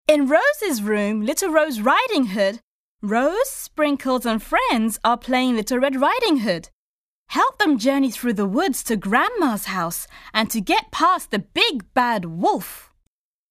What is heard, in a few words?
Character, UK F; narrator